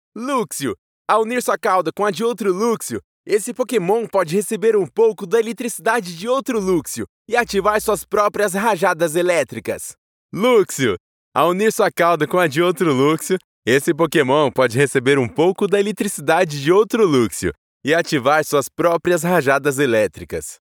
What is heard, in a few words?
Portuguese Brazilian video games